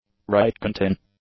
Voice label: "right-contin", English; adjectives

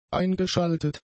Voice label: "eingeschaltet", German; adjectives

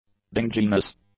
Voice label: "dinginess", English; nouns

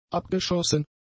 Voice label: "abgeschossen", German; adjectives

